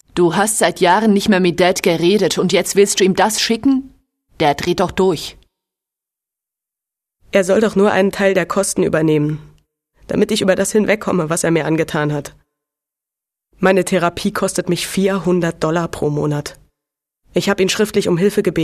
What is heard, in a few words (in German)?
Dialog